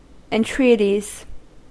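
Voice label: "entreaties", US English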